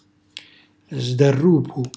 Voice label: "sdarrupu", Calabrese; cucina calabrese

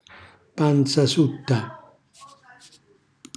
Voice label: "panzasutta", Calabrese; cucina calabrese; Glossario

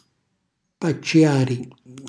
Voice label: "paccjari", Calabrese; cucina calabrese; Glossario

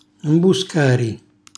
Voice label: "mbuscari", Calabrese; cucina calabrese; Glossario

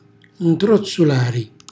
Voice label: "ntrozzulari", Calabrese; cucina calabrese; Glossario

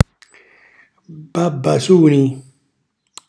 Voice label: "babbasuni", Calabrese; sciocco

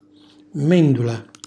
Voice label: "mmendula", Calabrese; mandorla; cucina calabrese; Glossario

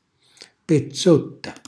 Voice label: "pezzotta", Calabrese; cucina calabrese; Glossario

